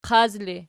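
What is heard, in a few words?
"qaz" ergative, Archi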